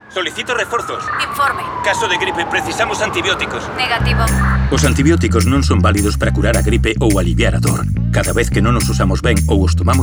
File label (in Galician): CUÑA GALLEGO